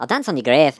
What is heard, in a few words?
"fatality", Irish; Worms Armageddon